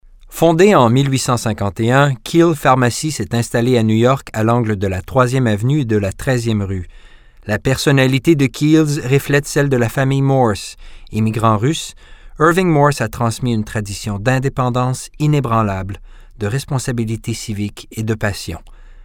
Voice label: languages french canadian